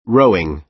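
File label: "rowing", American English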